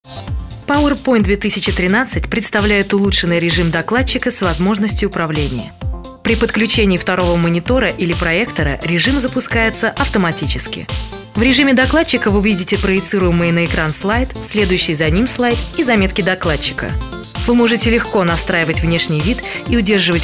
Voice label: rus